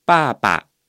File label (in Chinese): "爸爸", Chinese